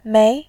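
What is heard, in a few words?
"mei", Mandarin, tone 2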